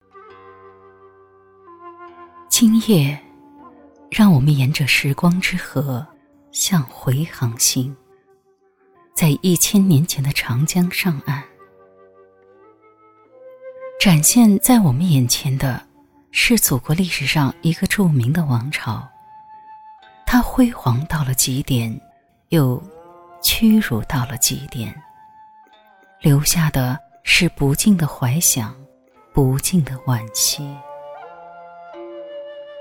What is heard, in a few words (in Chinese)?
解说词, 国语女声配音; 优雅; 宋人弦歌